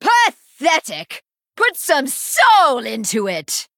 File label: Female HighElf Play